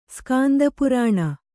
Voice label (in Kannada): "ಸ್ಕಾಂದಪುರಾಣ", Kannada